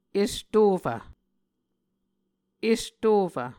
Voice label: "estofv", Muscogee

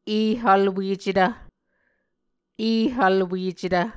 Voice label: "ehvlwecetv", muscogee

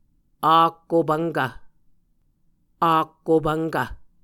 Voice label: "akkopvnkv", Muscogee

